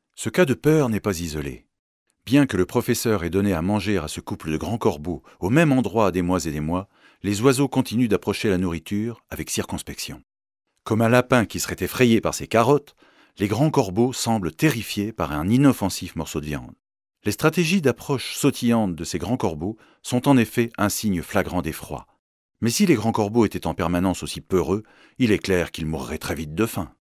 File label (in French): Vx off docu